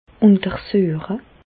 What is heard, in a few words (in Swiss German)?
"untersueche", Alsace, Bas Rhin; medecine; examiner